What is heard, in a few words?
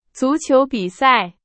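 Chinese; hsk3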